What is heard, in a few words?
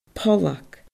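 "pollag", Scottish Gaelic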